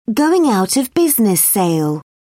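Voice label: "going-out-of-business sale", British English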